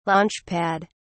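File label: "launchpad", British English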